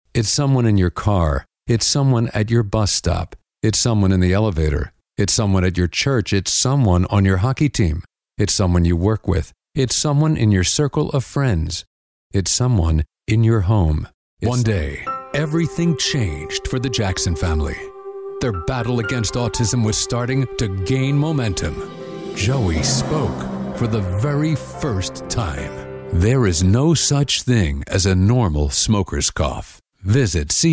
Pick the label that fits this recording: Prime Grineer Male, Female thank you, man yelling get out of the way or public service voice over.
public service voice over